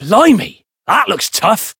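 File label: Voice encounter fear; dennie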